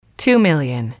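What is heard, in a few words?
"two million", English, female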